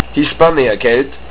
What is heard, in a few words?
"hispáania keelt", Estonian; Spanish